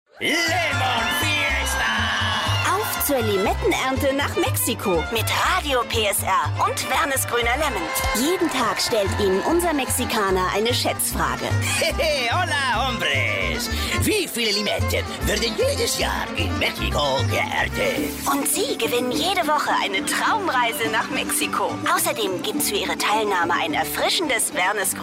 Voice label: dialekt

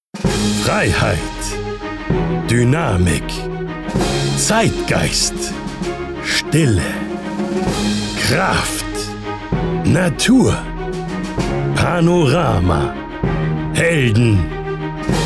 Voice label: Autohaus, BER

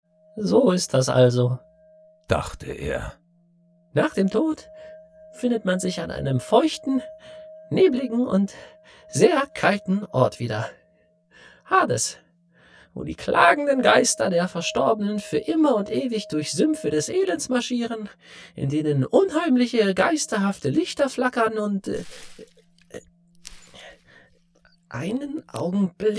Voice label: Hoerspiel Tollpatsch; Die Farben der Magie; Pratchett

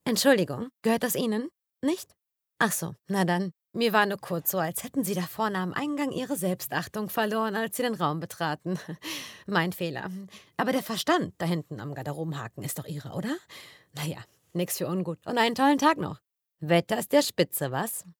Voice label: Arrogant

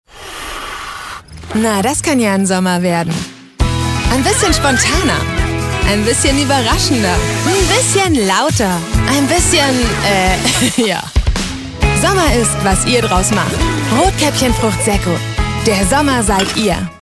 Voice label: Tv Werbung; Rotkäppchen Fruchtsecco

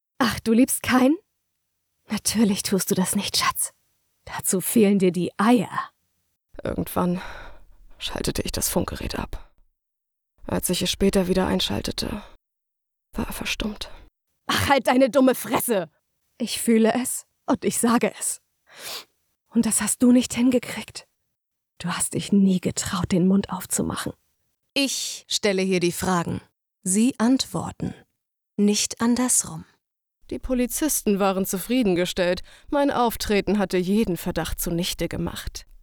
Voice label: Emotionen Mix

